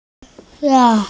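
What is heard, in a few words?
"school", Mandarin, hearing-impaired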